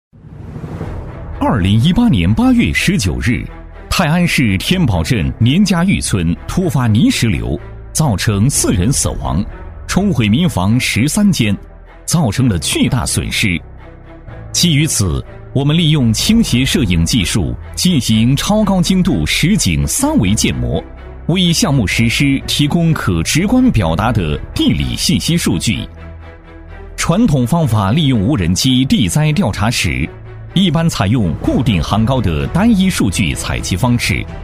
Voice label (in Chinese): 男 警示片 紧张严肃, 正气明亮 大气浑厚; 事故安例警示片